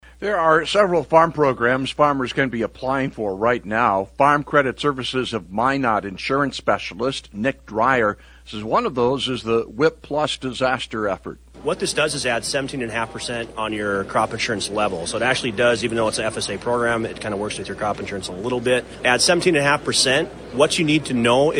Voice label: air check; Minot